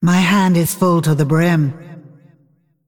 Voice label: Female Dragon hand full